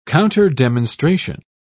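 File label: "counterdemonstration", British English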